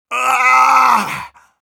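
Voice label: Engineer pain severe, Russian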